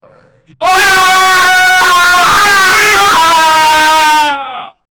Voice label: a distorted male voice, distress